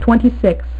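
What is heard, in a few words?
"twenty-six", female; MacCribbage; InterStellar MacCribbage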